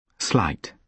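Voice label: "slight", British English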